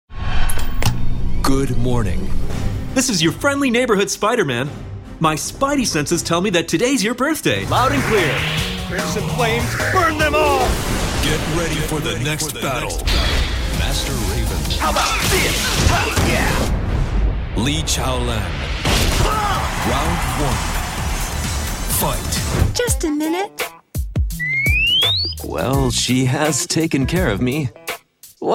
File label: character; USA